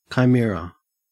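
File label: "chimera", Australian English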